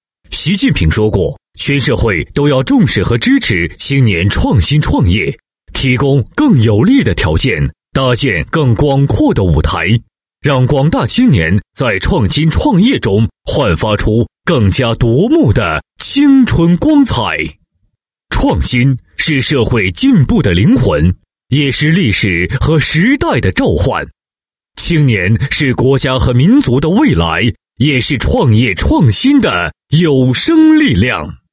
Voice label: 男64-活动宣传,配音案例; 职业学校创新创效创业大赛宣传

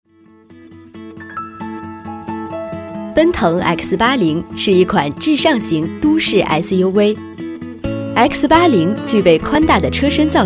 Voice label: 女 广告, 国语女声配音 广告童声; 奔腾X80

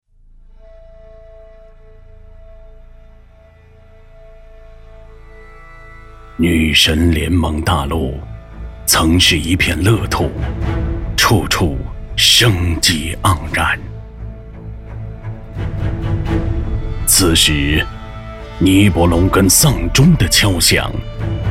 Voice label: 男25, 国语男声配音; 女神之乱

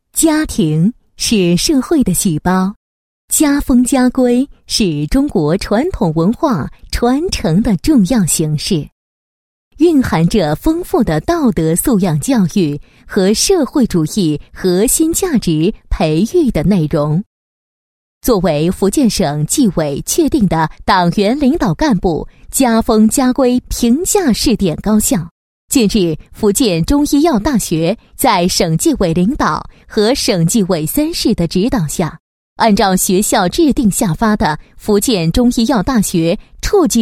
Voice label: 女 政府; 福建省纪委党员领导干部家风家规工作

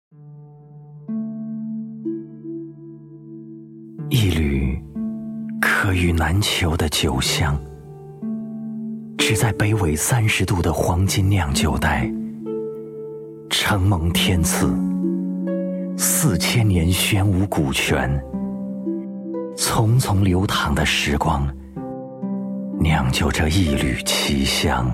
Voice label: 酒广告, 男声配音; 国语男声配音; 大师级录音; 杜康; 酒文化

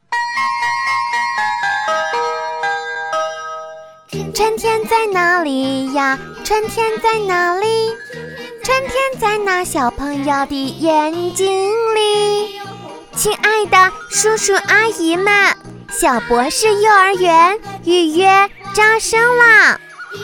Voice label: 女 女童说唱 幼儿园宣传

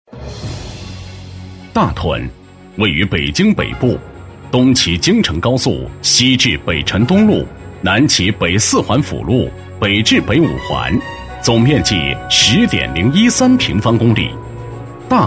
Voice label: 男 专题, 国语男声配音; 北京规划

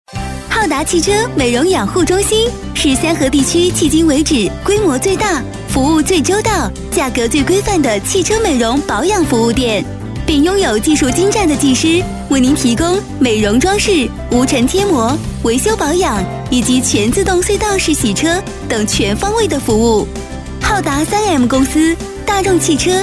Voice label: 女 广告 洗车; 国语女声配音; 专题广告; 昊达洗车

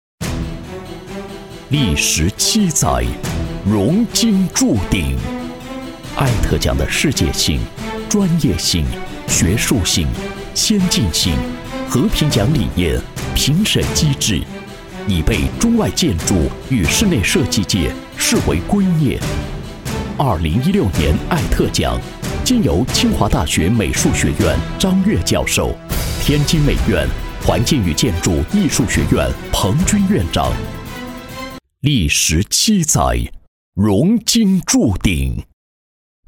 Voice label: 男 颁奖; 2016年艾特奖

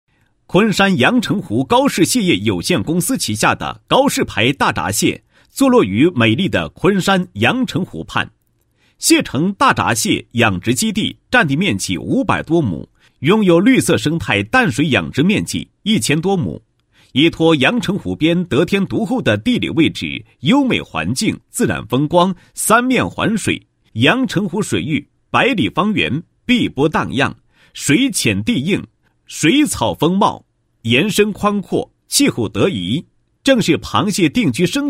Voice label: 男 宣传广告; 高氏大闸蟹

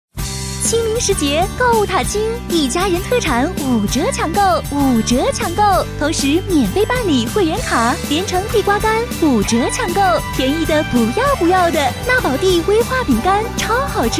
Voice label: 女 广告 节日促销; 多风格录音; 国语女声配音

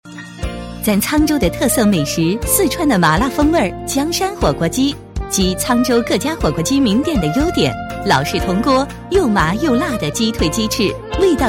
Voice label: 女 广告, 国语女声配音 全能配音; 火锅鸡

